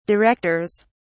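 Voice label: "directors", British English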